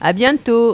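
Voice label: "A bientôt", French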